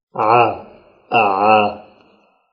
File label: Voiced pharyngeal fricative